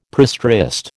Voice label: "prestressed", American